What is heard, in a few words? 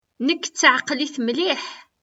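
"Nekk d taεeqlit mliḥ.", Kabyle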